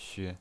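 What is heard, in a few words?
"xue", pinyin voice, neutral tone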